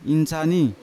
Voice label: "insani", Yawa; bird; unat